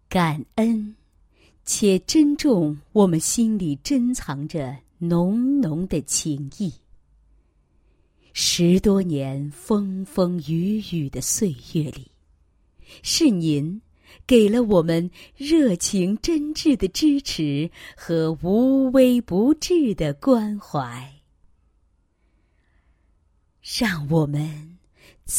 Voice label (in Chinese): 女 诗歌; 让我们怎样感谢您 书记